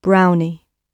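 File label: "brownie", British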